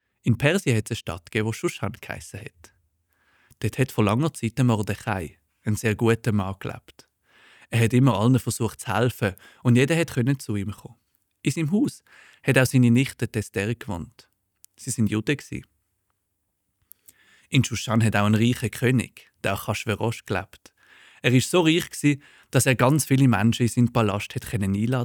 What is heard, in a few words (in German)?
Ester von Schuschan Mundart; 104 esther von schuschan klugheit und mut